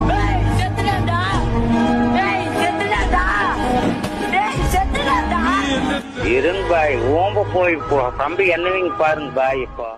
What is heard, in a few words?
Dialogue Ringtone; Irunga Bhai